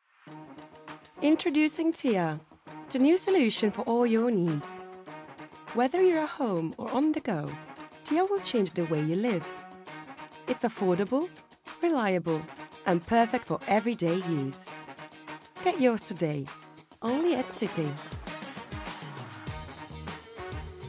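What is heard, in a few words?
British English Commercial; GoLocalise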